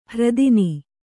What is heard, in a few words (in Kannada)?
"ಹೆರತನ", Kannada